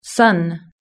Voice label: "sun", British English

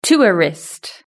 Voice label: "tourist", british english

